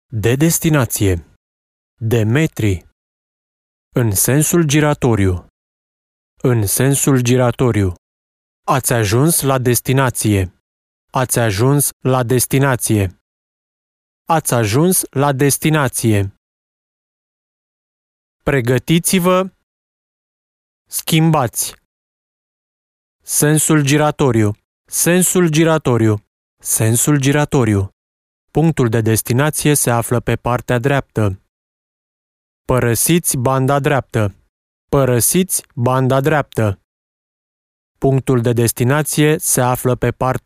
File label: male, Romanian